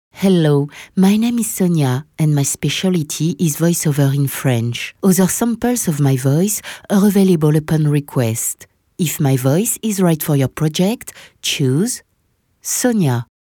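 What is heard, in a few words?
French English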